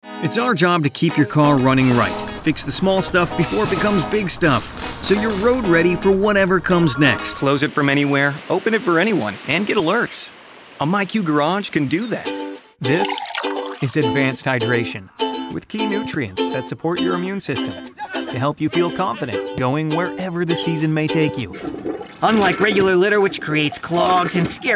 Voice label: Commercial

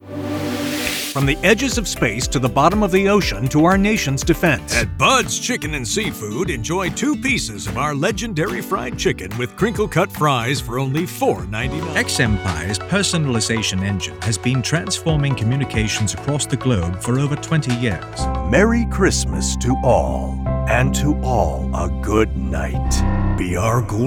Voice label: COMMERCIAL

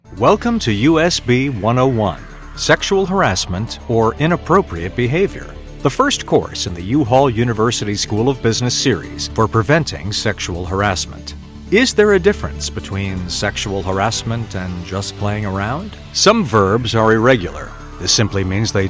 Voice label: Corporate eLearning

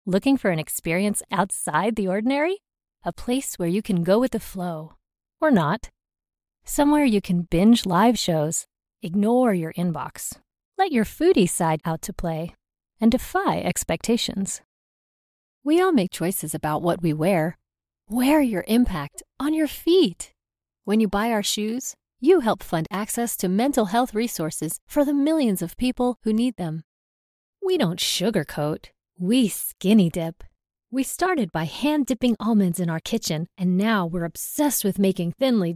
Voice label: Casual - COMMERCIAL - Playful - Self-Assured

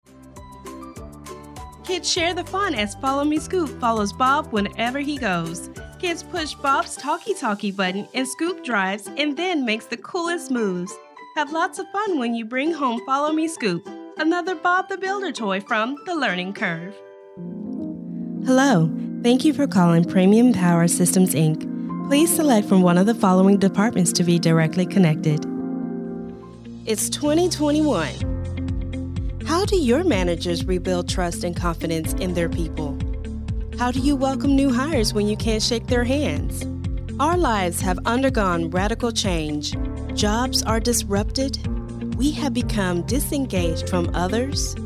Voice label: IVR, Announcer and Commercial

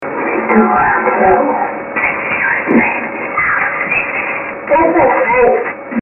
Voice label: argument 3 voices

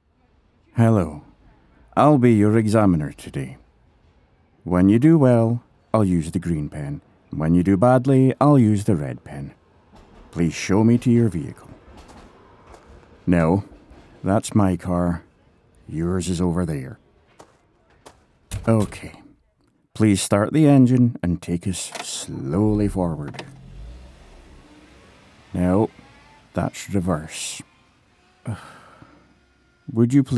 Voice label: Animation DRIVING INSTRUCTOR

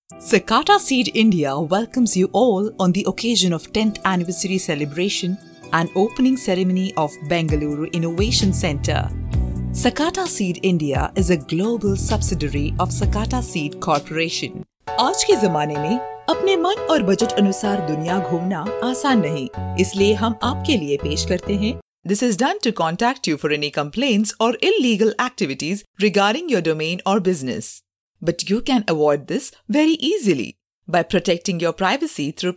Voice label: corporate English and Hindi

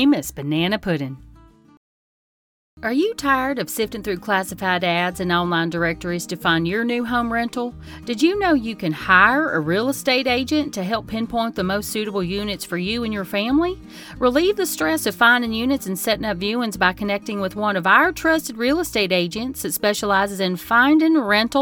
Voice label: Texas Accent, Country Commercials

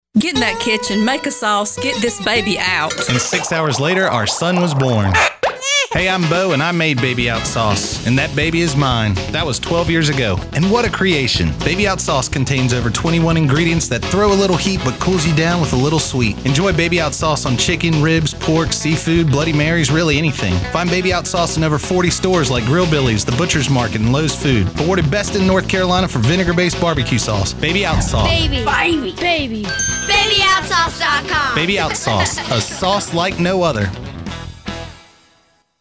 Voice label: Radio ad; Baby Out Sauce

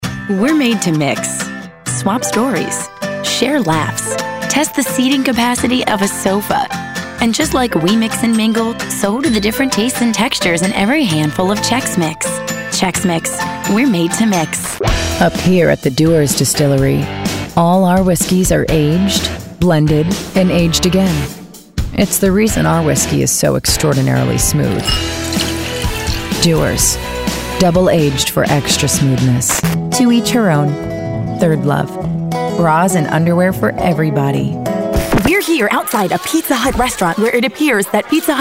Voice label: Commercial

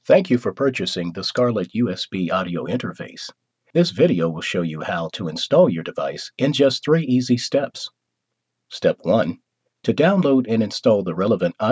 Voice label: e-learning

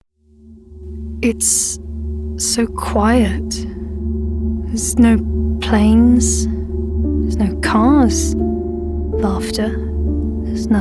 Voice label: Character

